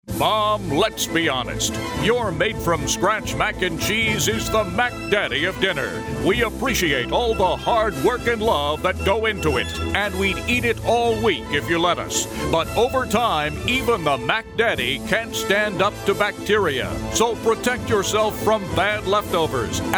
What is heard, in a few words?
Radio; 4 Day Throw Away; Mom; FoodSafety